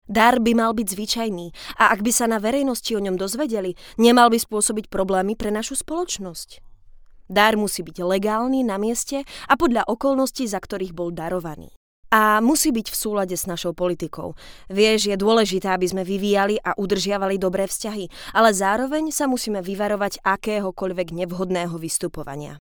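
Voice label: Slovak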